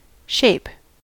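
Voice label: "shape", US English; pronunciations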